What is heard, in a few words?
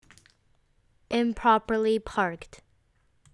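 "improperly parked", English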